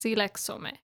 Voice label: civ female gather together, hellenes